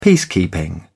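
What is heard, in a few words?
"peacekeeping", British English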